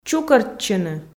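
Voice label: "чукӧртчыны", Komi, female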